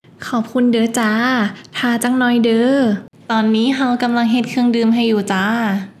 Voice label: thank you, northeast, thai; taobin project; songkran